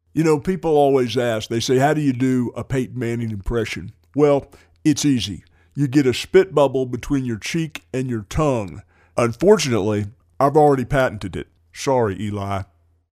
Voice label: Impression; Peyton Manning